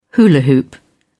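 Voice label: "hula-hoop", English, uk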